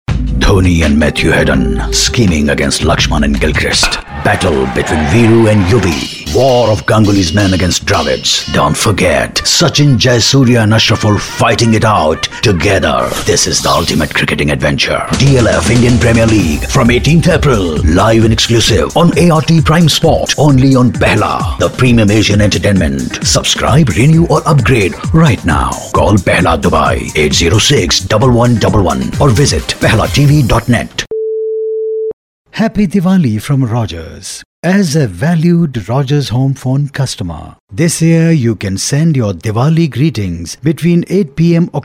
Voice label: english, male voice